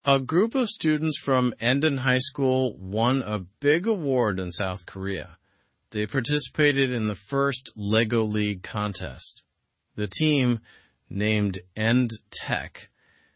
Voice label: english through news lower intermediate slow; endon lego team wins in south korea

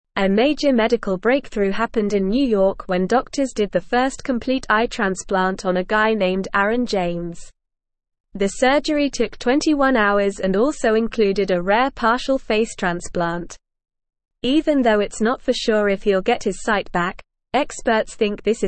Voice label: Upper Intermediate NORMAL Reading, English; Worlds First Complete Eye Transplant Marks Medica; English Newsroom

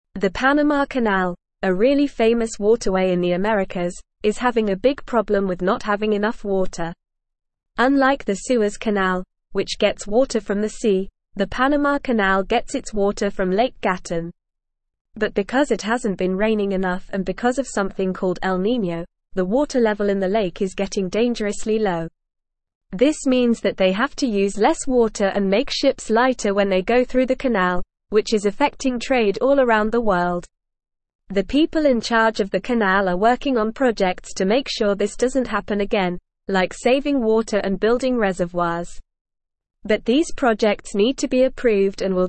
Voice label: English Upper Intermediate NORMAL Reading; Panama Canal Faces Critical Water Shortage Threat

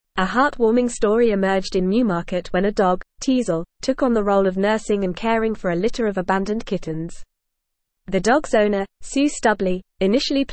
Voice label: English Newsroom Advanced FAST Reading; Dog Becomes Surrogate Mother to Abandoned Kittens; A heartwarming story emerged in Newmarket when a dog, Teasel, took on the role of nursing

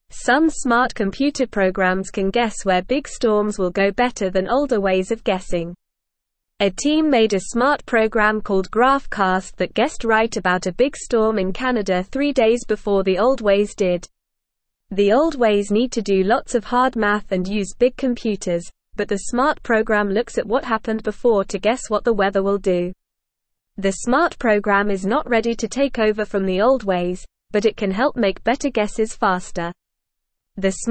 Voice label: English Newsroom Beginner NORMAL Reading; Smart Program Guesses Big Storms Better Than Old Ways

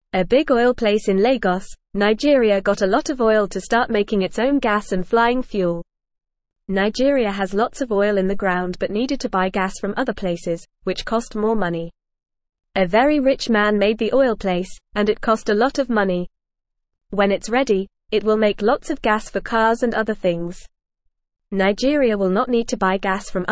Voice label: English Newsroom Beginner FAST Reading; Nigeria Makes Its Own Gas to Save Money

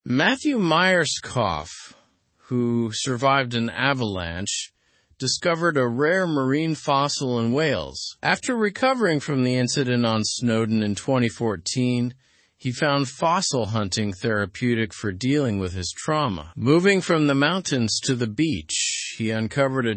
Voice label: learn english through news upper intermediate; mountaineer finds ancient sea dragon fossil slow

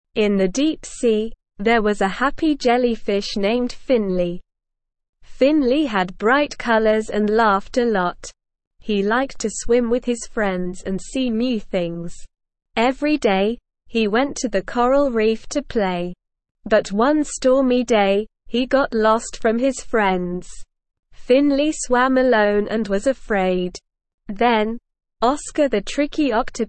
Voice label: ESL Short Stories for Kids Beginner SLOW Reading; The Jolly Jellyfish

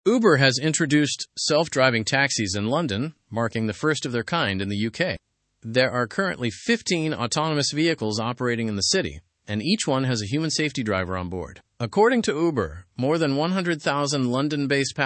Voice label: learn english through news upper intermediate; uber s self driving taxis now available in london fast

